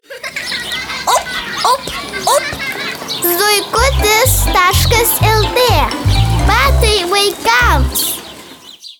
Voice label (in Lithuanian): MILDA 8 METAI, lietuvių moteris